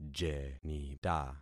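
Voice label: "jenita", concatenated syllables; Affixing experiment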